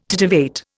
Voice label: "titivate", Indian